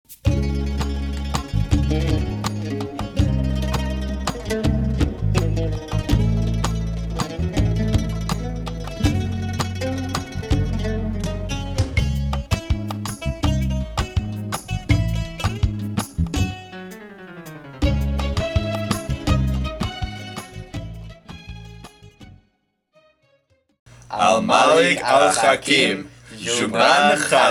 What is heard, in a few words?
Arabic Story